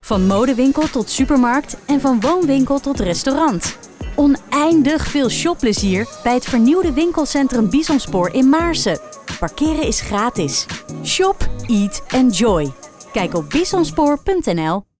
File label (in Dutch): Winkelcentrum Radiocommercial Algemeen; 15 seconden; Bisonspoor